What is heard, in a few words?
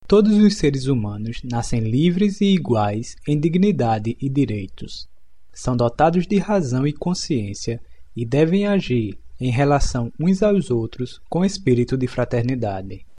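udhr pt-br, Brazilian Portuguese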